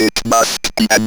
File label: ROB VOX